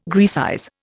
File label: "Graecize", Indian